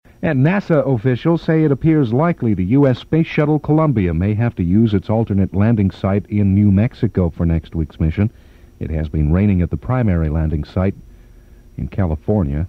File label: News; CFNY; 1982; Shuttle landing site under water March